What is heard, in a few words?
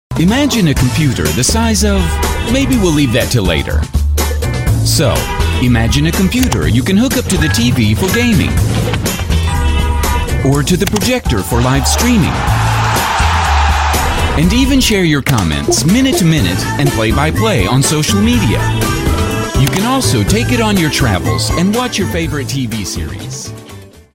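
English US, male, commercial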